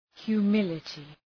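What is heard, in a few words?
"humility", English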